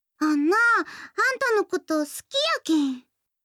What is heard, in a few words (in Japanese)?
好きです 香川; やよい